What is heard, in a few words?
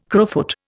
"crowfoot", Indian